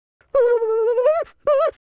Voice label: scared, French speech

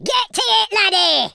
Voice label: "orders", Scotsman; speech

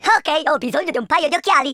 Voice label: oops, speech Italian

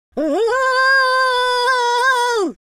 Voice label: scared

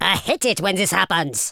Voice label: "incoming", Super Villain speech

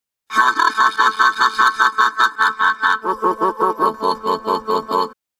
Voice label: happy; Cyberworms

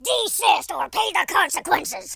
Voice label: go away, War General

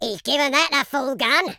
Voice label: excellent, Footy Fan

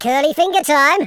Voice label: fireball, Footy Fan speech

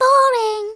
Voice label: boring

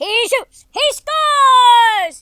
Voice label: fire, US Sports speech

